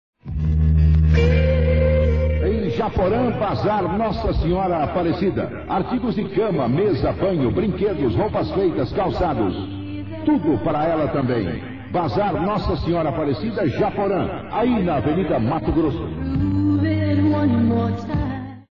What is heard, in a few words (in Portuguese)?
comercial